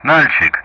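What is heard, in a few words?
Speech Sound Russian; Common Player Callsign Nalchik; DCS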